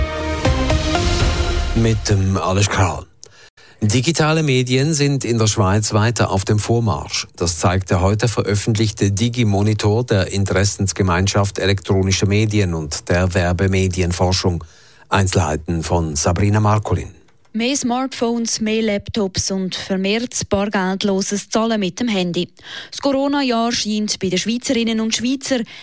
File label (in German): Radio1 Nachrichten